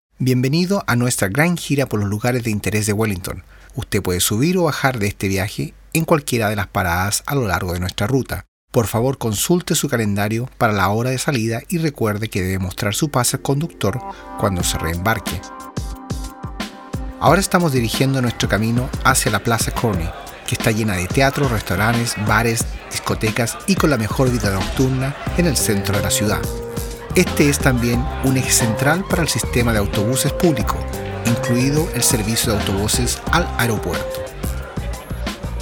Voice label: Spanish; Te Papa Tongarewa